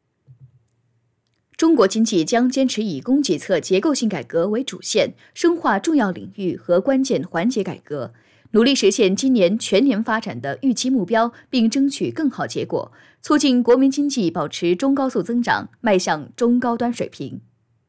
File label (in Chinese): 新闻类